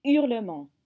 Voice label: "hurlement", French